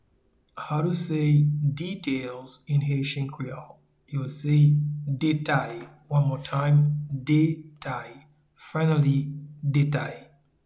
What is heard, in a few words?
Details in Haitian Creole Detay, audio pronunciation; “Details” (English) translates as “Detay” in Haitian Creole